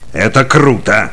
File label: Cheer; Kingpin Fargus